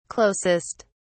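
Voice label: "closest", British English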